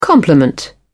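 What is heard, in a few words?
"complement", British English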